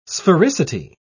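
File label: "sphericity", British English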